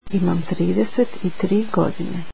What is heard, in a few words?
Im thirty three years old, croatian